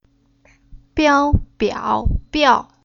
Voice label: "Biao", Chinese